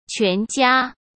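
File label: "全家", Mandarin Chinese; chinese flashcards; hsk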